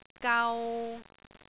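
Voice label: "gau3", Cantonese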